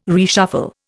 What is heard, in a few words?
"reshuffle", Indian